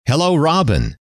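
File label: "hello", male; trainer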